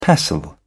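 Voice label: "pestle", British English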